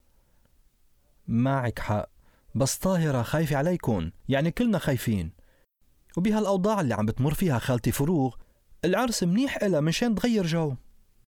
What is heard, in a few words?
arabic m narration; voice demos